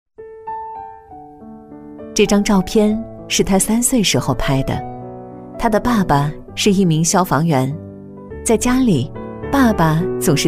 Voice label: 女 人物解说 消防员 微微讲解

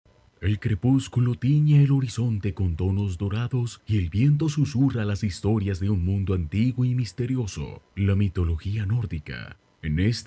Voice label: 西班牙男声

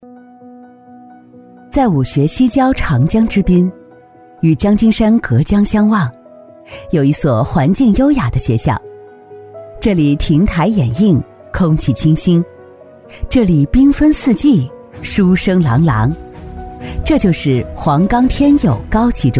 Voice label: 女, 学校宣传片, 大气沉稳; 沉稳大气; 女42-全能型; 黄冈天有高中

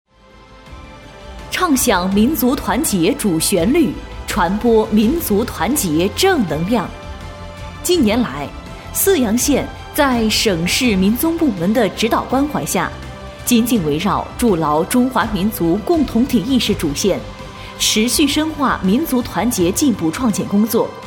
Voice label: 女-政府专题汇报-稳重坚定; 泗阳县; 端庄大气; 沉稳大气

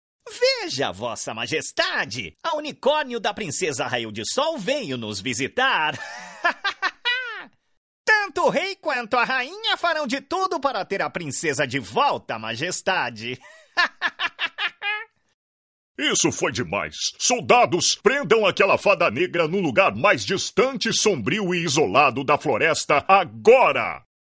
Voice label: 葡萄牙男声 角色

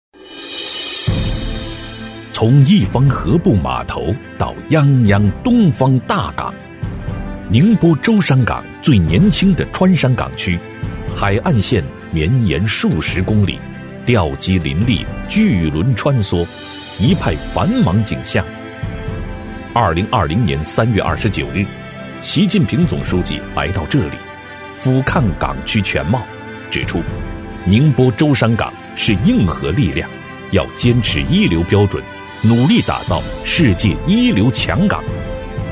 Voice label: 男 政府专题 大气稳重; 稳重底蕴; 大气浑厚

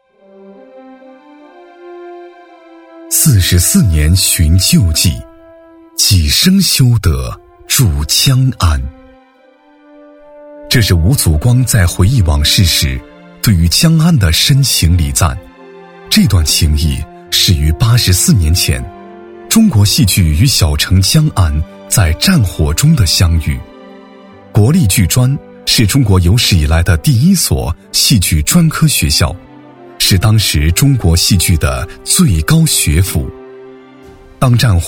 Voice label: 男 专题配音 深情讲述

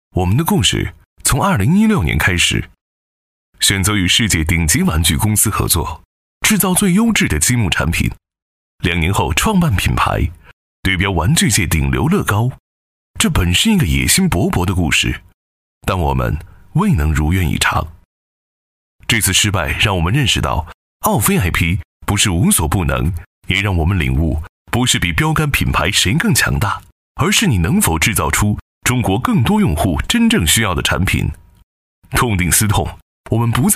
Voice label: 男-招商宣传 积木招商; 维思积木招商; 时尚大气; 科技时尚

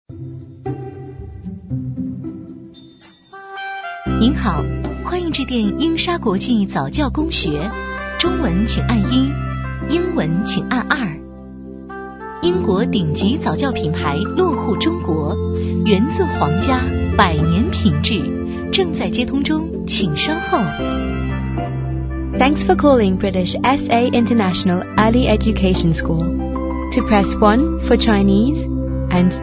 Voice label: 女 电话彩铃 中英文; 英莎早教

